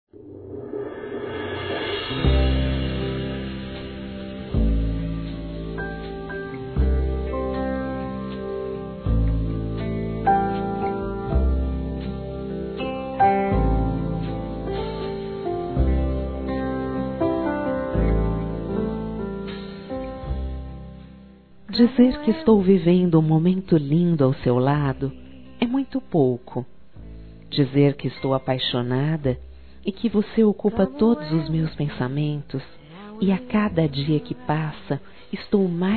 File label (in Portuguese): ROMANTICA F